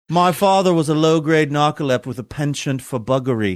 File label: saying my father was low grade narcolept snooty british accent